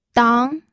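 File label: "dang", pinyin, second tone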